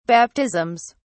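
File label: "baptisms", British English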